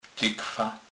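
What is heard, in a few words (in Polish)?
"tykwa", Kurpie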